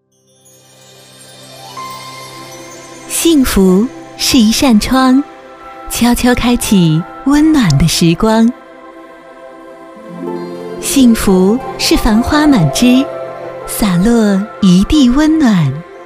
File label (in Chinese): 宣传片 地产 地产片